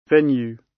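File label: "venue", British English; The arts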